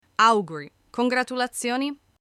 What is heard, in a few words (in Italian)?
"Congratulazioni", Italian